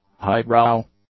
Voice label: "highbrow", English; adjectives